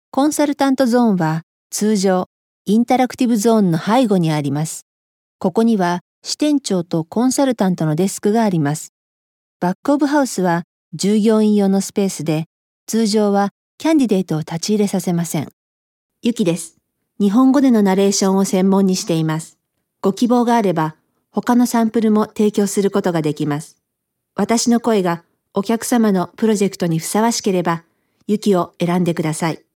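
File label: Japanese narration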